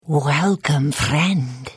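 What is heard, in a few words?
Hello, Khajiit female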